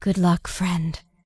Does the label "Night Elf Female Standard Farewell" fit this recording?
Yes